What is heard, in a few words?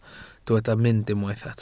"mynd", Welsh